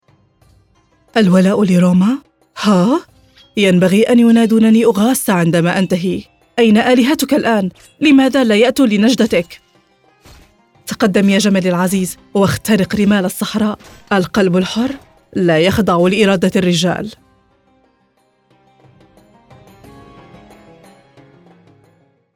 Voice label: arabic video games